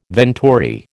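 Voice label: "venturi", American English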